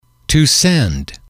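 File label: to send, English, male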